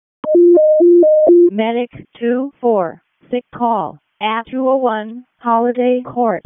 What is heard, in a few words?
EMS ALERT